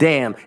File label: "damn", expletives